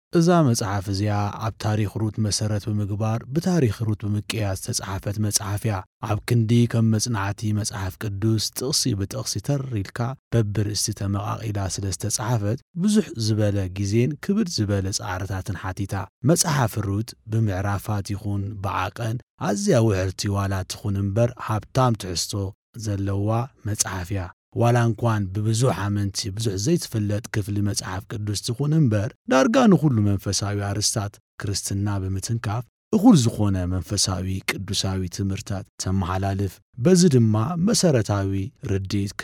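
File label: Tigrinya Male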